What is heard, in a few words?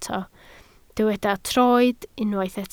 "troed", Welsh